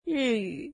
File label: Japanese, mandarin